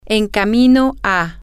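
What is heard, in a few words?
on the way to, spanish, female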